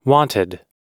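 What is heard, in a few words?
"wanted", American English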